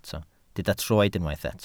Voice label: "troed", Welsh

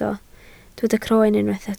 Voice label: "croen", Welsh

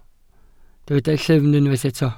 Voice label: "llyfn", Welsh

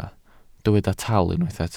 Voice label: "tal", welsh

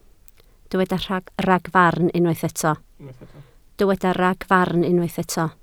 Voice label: "ragfarn", Welsh

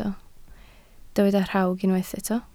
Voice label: "rhawg", Welsh

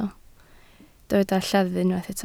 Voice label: "lleddf", Welsh

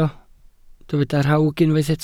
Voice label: "rhawg", Welsh

